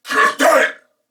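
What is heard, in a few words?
Throw Grenade Male, en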